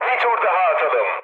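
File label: Turkish bomb failure, mood med, speech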